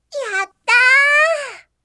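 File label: "yattaa", Japanese, yorokobu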